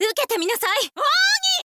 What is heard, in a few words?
swordwoman special, voice